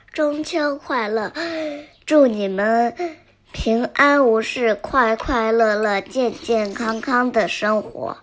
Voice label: chinese test